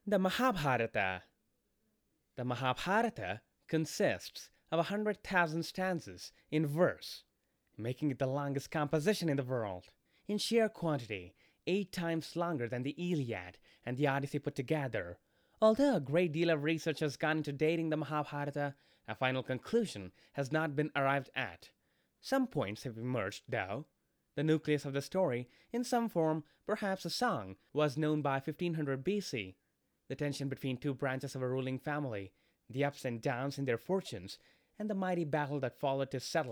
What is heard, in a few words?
us eng